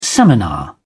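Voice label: "seminar", English, British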